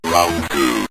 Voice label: round 2, synth voice; Kenney's Sound Pack

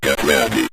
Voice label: get Ready, Synth Voice; Kenney's Sound Pack

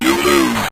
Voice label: "you lose", synth voice; Kenney's Sound Pack

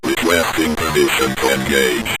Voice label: requesting Permission To Engage, Synth Voice; Kenney's Sound Pack

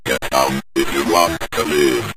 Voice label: get Down If You Want To Live, Synth Voice; Kenney's Sound Pack

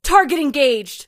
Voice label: target engaged, Voiceover, Female; war; Kenney's Sound Pack

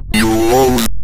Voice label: you lose, Synth Voice; Kenney's Sound Pack; Synth Voice 1

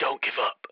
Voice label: Don't Give Up, British Soldier Voices; 344 Audio

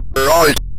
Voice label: direction right, Synth Voice; Kenney's Sound Pack; Synth Voice 1

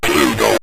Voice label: "pluto", synth voice; Kenney's Sound Pack

